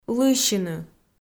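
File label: "лыйсьыны", Komi, female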